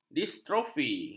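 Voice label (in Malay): "distrofi", Malay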